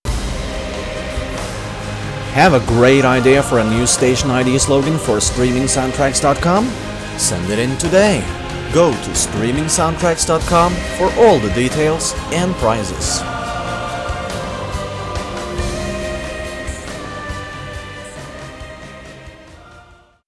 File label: Station ID Contest Promo